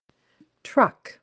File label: "truck", anglais; vocabulaire